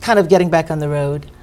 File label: Mystery Voice voice clip only